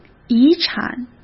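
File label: "遗产", Mandarin Chinese; hsk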